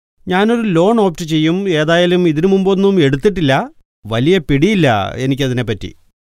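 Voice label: Male Malayalam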